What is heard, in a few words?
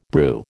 "brew", American